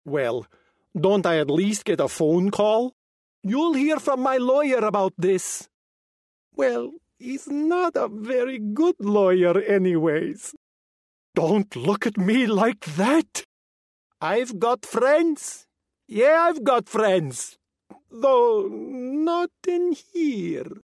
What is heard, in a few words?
slavic criminal; LOFT VOICES